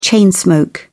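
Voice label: "chain smoke", British English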